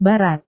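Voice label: "barat", Indonesian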